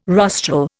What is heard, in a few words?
"rostral", Indian